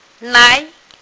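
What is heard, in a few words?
"lai2", Mandarin Chinese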